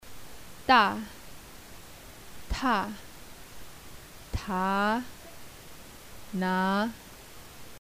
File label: alphabet, Tibetan